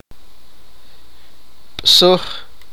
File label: think, sipakapense